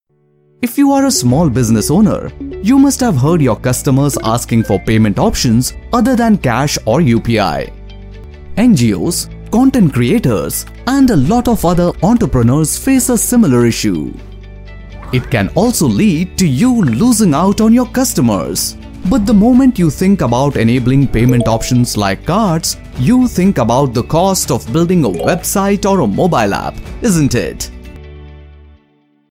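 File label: English Explainer; PayU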